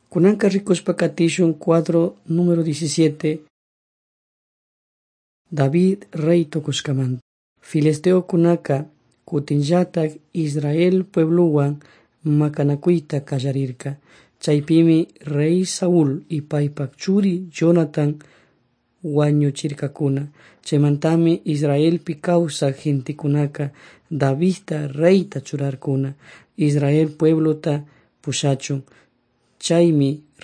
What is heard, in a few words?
servants of god quichua chimborazo